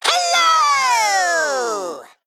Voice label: Male Mech Attack; en; AnnoyO